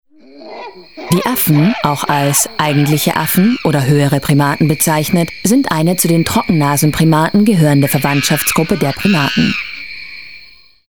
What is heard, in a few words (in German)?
Deutsch Sachtext